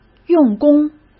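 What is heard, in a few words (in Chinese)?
"用功" Mandarin Chinese; hsk; chinese-flashcards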